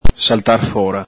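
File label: "saltar fhora", Veneto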